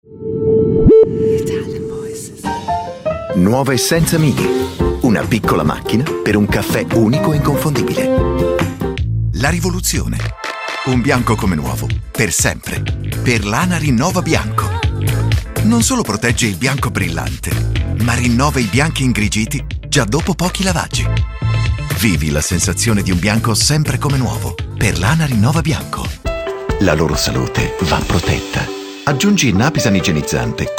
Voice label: ITALIAN VOICES Friendly Reassuring

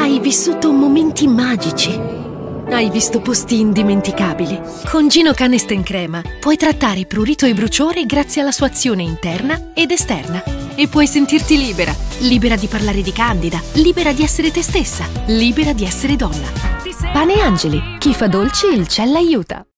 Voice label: Italian voices, Reassuring Authoritative

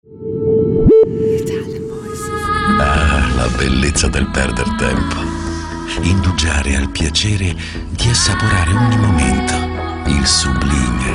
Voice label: ITALIAN VOICES Smooth

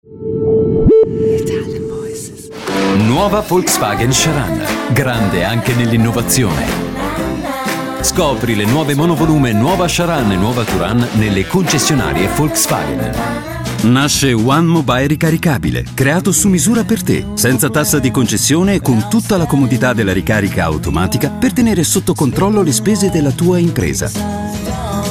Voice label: ITALIAN VOICES Friendly Convincing

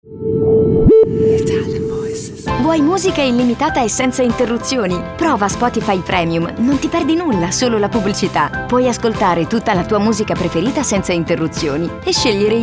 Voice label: ITALIAN VOICES Voiceover

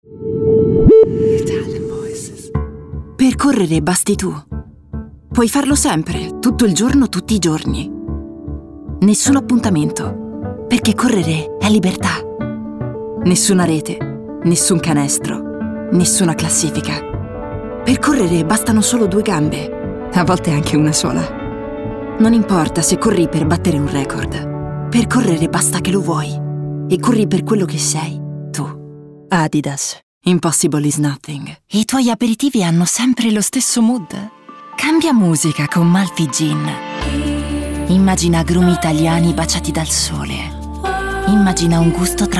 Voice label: Natural Genuine, ITALIANVOICES

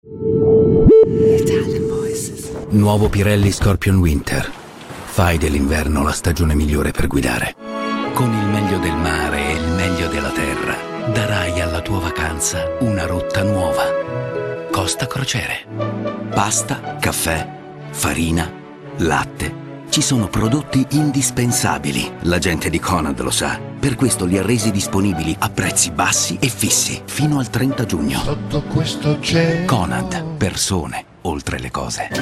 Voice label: Italian voices, Reassuring Rich

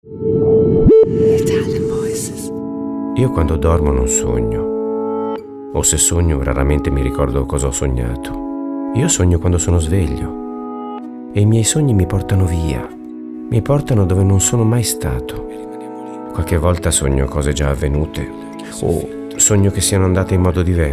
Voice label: ITALIAN VOICES; Naked Reel

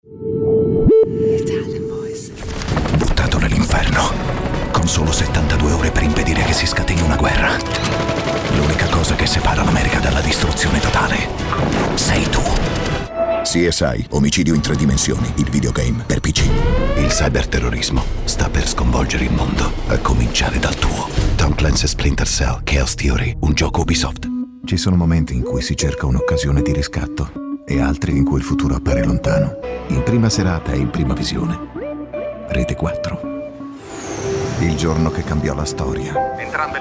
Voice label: ITALIAN VOICES Cinema Trailer Promos

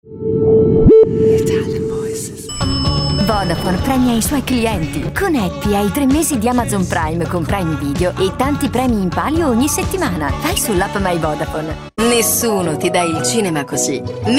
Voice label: ITALIAN VOICES Recent Commercials

